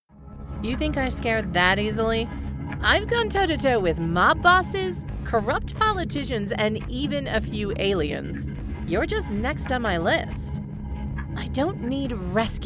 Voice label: Audio Drama